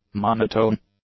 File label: "monotone", English; nouns